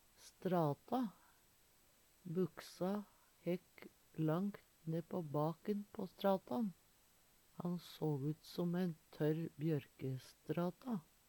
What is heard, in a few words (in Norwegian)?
strata, Numedalsmål